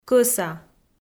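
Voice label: "кӧса", Komi, female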